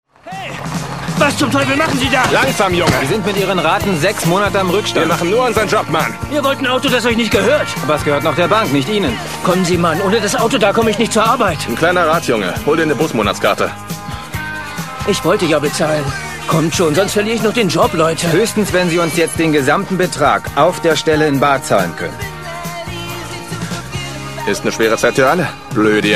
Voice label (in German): synchron; Sprecher in Berlin; Muttersprache Deutsch; cold case